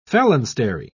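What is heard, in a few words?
"phalanstery", English, British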